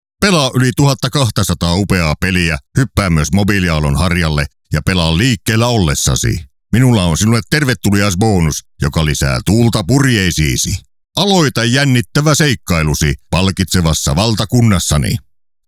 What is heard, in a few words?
Commercial